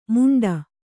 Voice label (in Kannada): "ಮುಂಡ", kannada